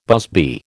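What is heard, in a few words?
"busby", American English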